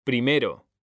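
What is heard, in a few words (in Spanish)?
"PRIMERO", Spanish; mekanta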